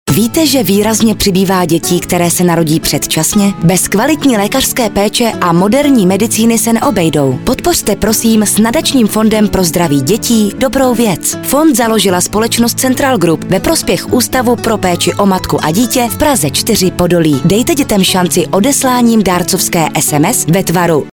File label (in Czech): locutora checa czech voiceover